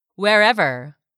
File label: "wherever", English; 3000 words